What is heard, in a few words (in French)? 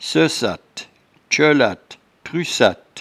"seucatte", patois jura